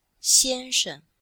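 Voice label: "xian1sheng5", Chinese, f; Cours-de-Chinois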